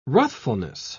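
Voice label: "ruthfulness", British English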